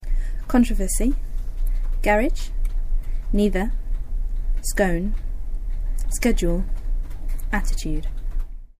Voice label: Blackpool England female

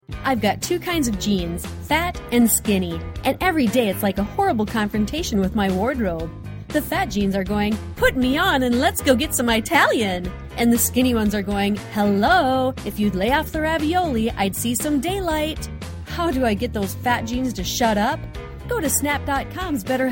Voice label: commercial

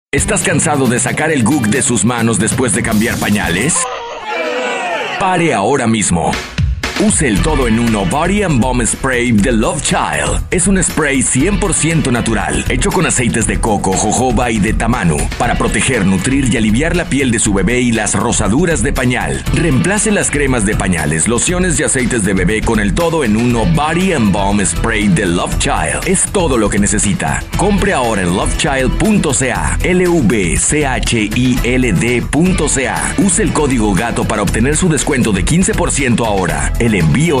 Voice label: commercial spanish; zona105fm

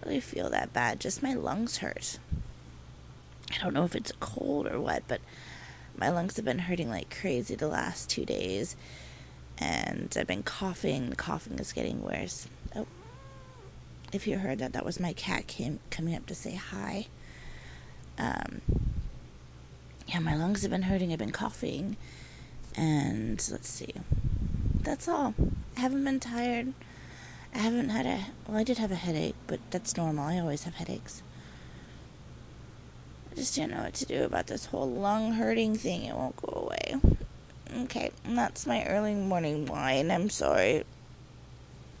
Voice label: sexy sick voice

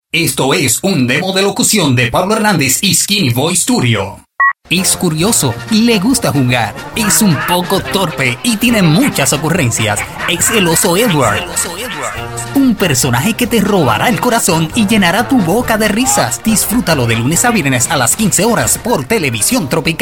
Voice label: voice over; skinny voice studio's

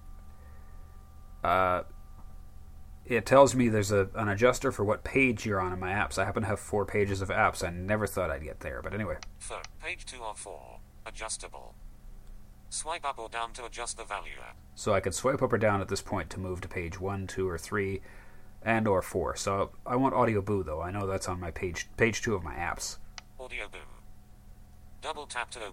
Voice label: voiceover

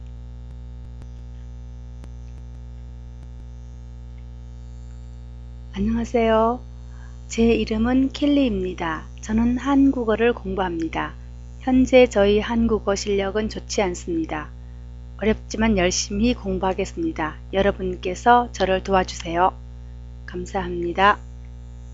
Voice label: korean

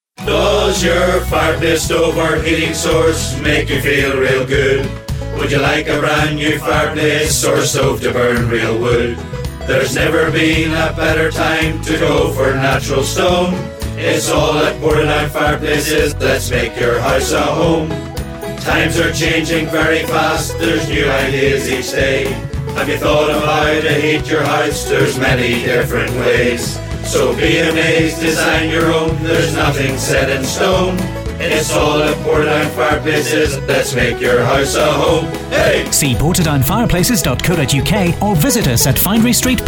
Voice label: radio advert; portadown fireplaces

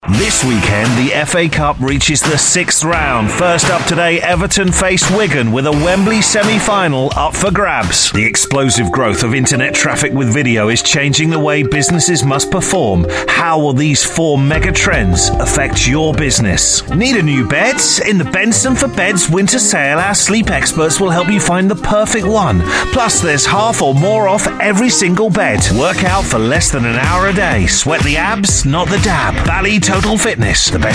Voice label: voiceover

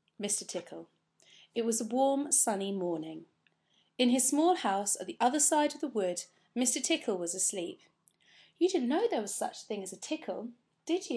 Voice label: Norfolk uk female

